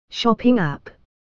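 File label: "shopping app", English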